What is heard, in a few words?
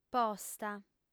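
"posta", female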